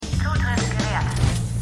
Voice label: Computerstimme; The 100

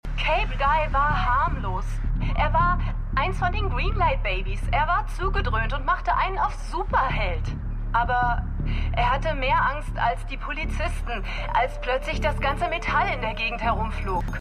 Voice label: Junge Frau; Black Lightning

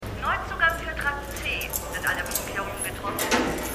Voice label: Durchsage; The Good Fight

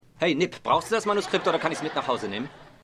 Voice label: Bueroarbeiter, Stimmproben; Seinfeld

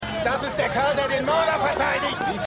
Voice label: Wuetender Mann; The Fall

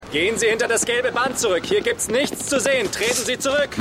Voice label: Polizist, Stimmproben

